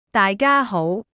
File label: Cantonese Greetings everyone